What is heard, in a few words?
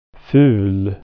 "ugly", swedish